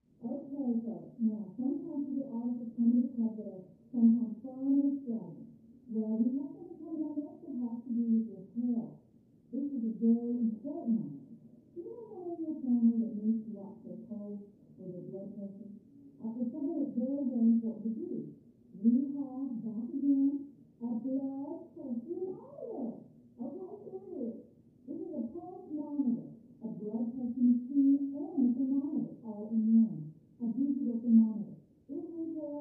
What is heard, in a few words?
Television; Home Shopping Show With Announcer And Typing Sounds. Through Thick Wall.